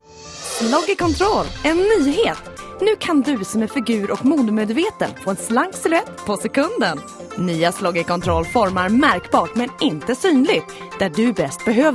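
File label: Swedish; Scandinavian; Male; 30s-40s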